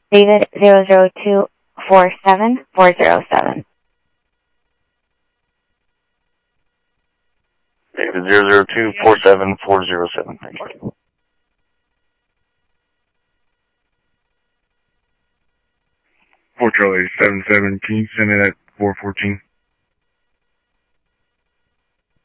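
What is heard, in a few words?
Lakes Sheriff Lake Patrol, scanner audio trunk; MCSO Lakes 1; ThompsonPeakScot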